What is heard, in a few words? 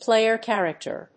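"player character", English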